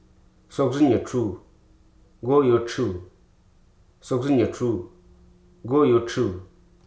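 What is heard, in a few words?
"hurl", spoken word; hruso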